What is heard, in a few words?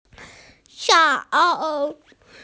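"cao3", Mandarin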